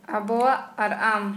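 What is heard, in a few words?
us and you, Santali, female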